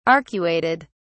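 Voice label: "arcuated", English, British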